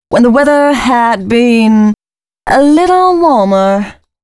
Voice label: female spoken hooks, dance ultra voice

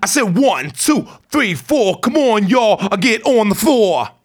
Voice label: RAP PHRASE; RAPPER 1-2

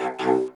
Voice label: VOCODER VOX; Masterbits - Tekkno Trax

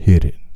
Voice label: "HIT IT", Male Vox, Hip Hop; Hip Hop Nation

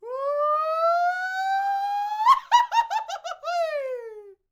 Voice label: MEXICO VOICE, MEXICAN; ILIO; Vocal Planet VOL-5

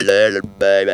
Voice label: LEI LEI BABY, Funky Vocals; USB Soundscan vol.18; AKAI

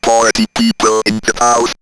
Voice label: Robot Party People, robot vox; X-Static Goldmine

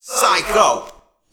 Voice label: Psycho Rev Verb, MCs, Hip-Hop; Hydroponic Hip-Hop

